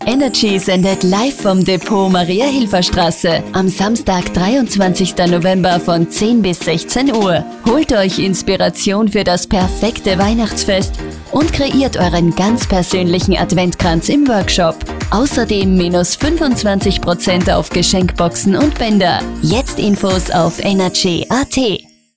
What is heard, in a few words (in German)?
Radiospot; Sprecher-Demos